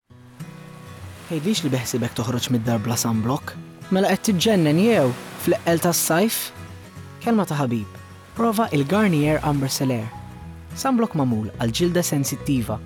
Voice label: male maltese compilation, voice over